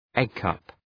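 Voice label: "egg cup", English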